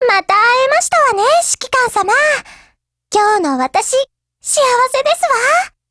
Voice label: HELLO JP; GF Alarm; M1911Mod